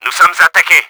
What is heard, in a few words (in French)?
UNDER ATTACK, french; Battle Engine Aquila